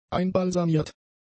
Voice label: "einbalsamiert", german; adjectives